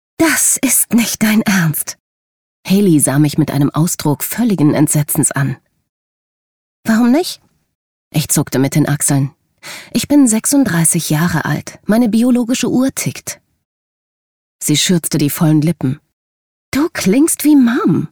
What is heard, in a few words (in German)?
Hoerbuch; Hörbuch